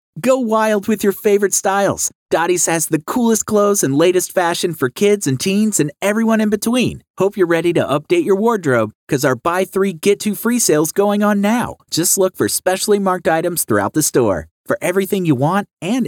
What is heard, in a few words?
Casual Conversational